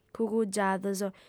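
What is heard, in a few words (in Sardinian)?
"cugugiados", female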